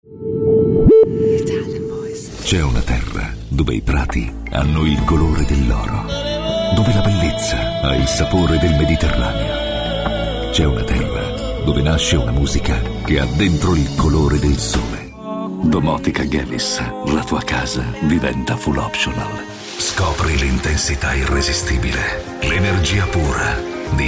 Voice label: Italian voices, Smooth Deep